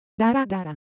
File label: Japanese Motion, Ideo Phones